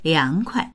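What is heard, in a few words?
"liang2kuai", Mandarin Chinese; 凉快; 涼しい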